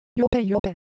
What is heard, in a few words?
Japanese, Ideo Phones; Color Visual